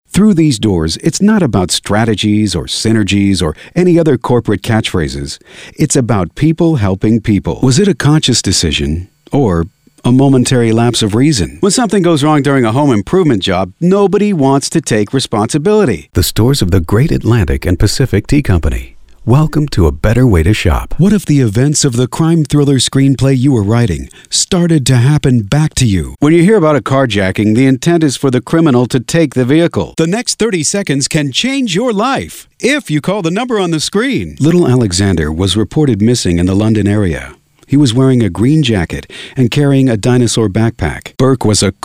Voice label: Audio Book